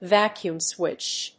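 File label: "VACUUM-SWITCH", English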